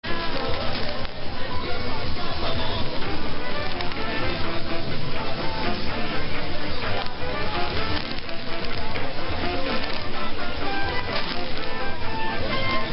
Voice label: Radio Cuba; 1000 Radio Granma